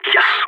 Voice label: enemy down, balkan; Counter-Strike Global Offensive

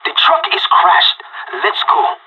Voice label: radio lock n load, pirate; Counter-Strike Global Offensive

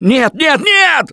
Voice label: grabbed by smoker, russian, Survivor Voice; Gambler

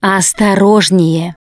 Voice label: warn careful, russian, Survivor Voice Producer

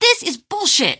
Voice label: question, female; Garry's Mod; hl2

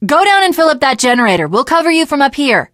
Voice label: finale chat, teengirl, voice; Left 4 Dead 2

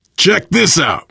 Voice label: look here, biker, survivor voice; Left 4 Dead 2

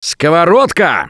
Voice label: Take Frying Pan, russian; Gambler

